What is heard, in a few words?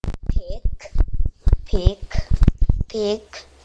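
"pick", spoken word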